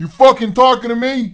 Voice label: cuss, profanity, male; kingpin